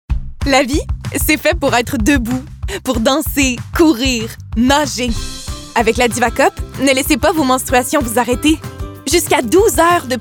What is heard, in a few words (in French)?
voix femmes; diva cup